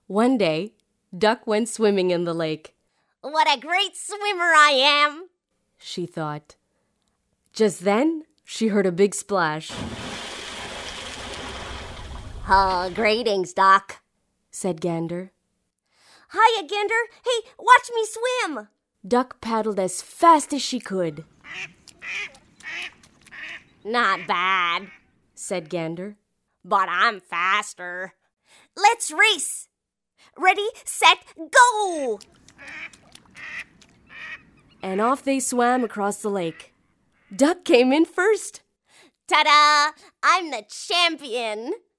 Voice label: multiple voices